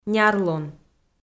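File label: "нярлун" Komi-Zyrian, female; kpv audio dict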